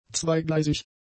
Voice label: "zweigleisig", German; adjectives

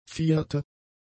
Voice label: "vierte", German; adjectives

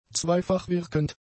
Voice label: "zweifachwirkend", German; adjectives

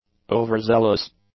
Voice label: "overzealous", English; adjectives

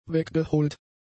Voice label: "weggeholt", German; adjectives

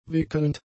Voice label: "wickelnd", German; adjectives

